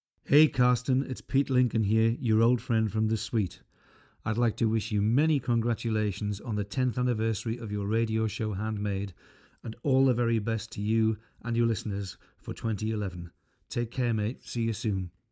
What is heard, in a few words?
radio